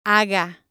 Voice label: "haga", spanish